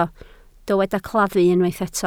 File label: "claddu", Welsh